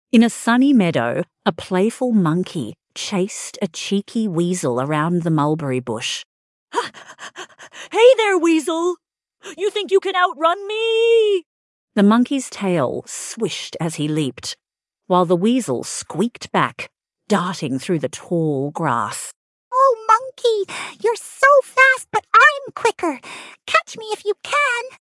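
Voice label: female Australian story; All Around the Mulberry Bush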